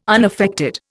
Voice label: "unaffected", Indian